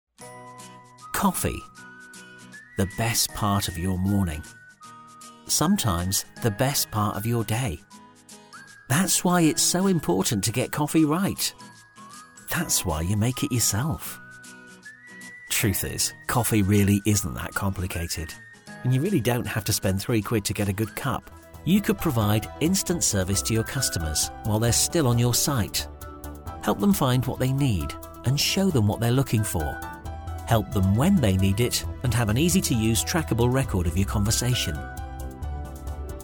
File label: Corporate Showreel explainer video; demo voix off